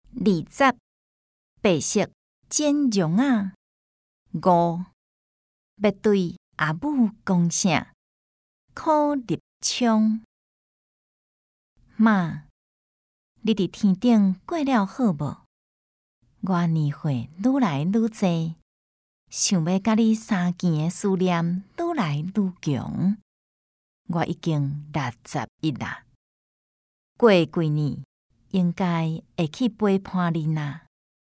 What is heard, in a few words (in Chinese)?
白色剪絨仔, 閩南語 朗讀文章; B2中高級; 閩南語語言能力認證